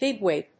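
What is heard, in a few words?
"bigwig", English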